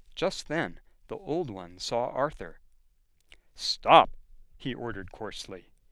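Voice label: General American accent; Just then the old one saw Arthur. "Stop," he ordered coarsely.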